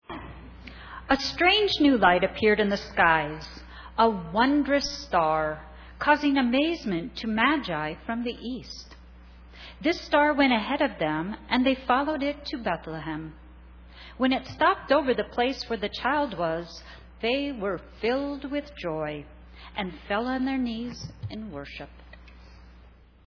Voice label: Narration; Cantata